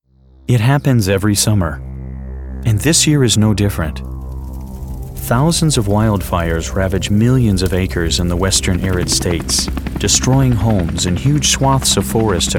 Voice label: Documentary VO; Documentary Voiceover Reel